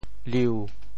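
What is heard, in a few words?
"liu7", Teochew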